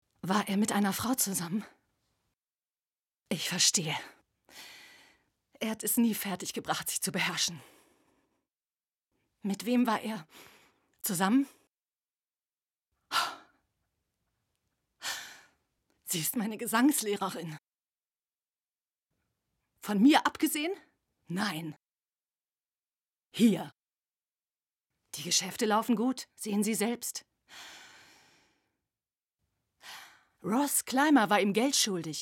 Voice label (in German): synchron; Berlin; Muttersprache Deutsch